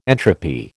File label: "entropy", American